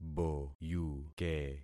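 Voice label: "boyuke", concatenated syllables; Affixing experiment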